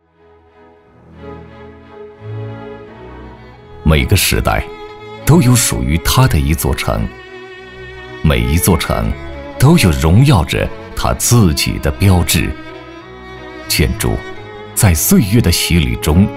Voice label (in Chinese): 男100号-地产专题-安全简约小区 大气 磁性, 国语男声配音